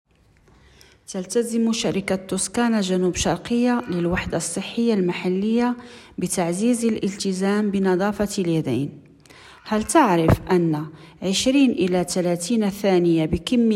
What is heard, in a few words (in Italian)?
arabo